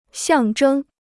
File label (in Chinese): "象征", Chinese